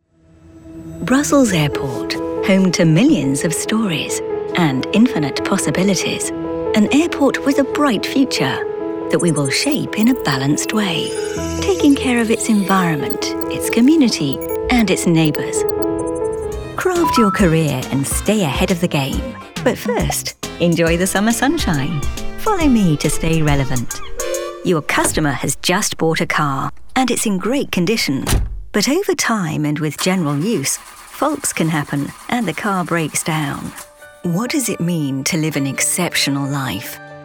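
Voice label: uk corporate, British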